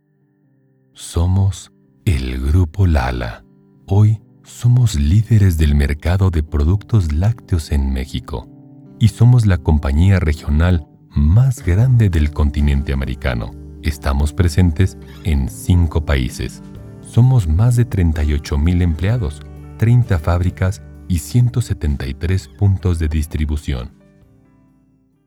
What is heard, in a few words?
Spanish Deep